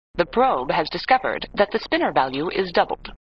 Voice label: Voice Computer "The Probe has discovered that the spinner value has doubled"